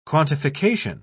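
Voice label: "quantification", English, British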